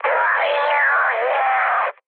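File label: Italian damaged pilot mood high, speech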